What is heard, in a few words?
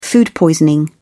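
"food poisoning", British English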